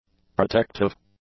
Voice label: "protective", english; adjectives